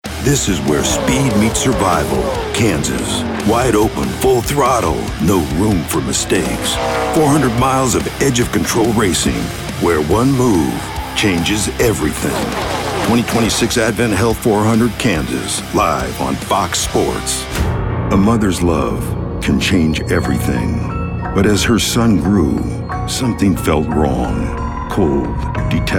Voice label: Promo VO